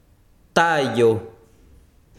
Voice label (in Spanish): "granizo", besiro, chiquitano